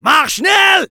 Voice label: Medic go, fr; Commandes vocales du Medic

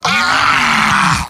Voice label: jeers; Heavy; mvm

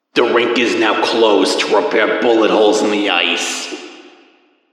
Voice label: Intercom cap closed

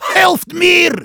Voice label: Heavy help me, de

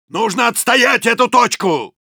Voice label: help me defend, ru; Soldier; «Нужно отстоять эту точку!»

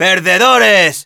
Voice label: taunts, es

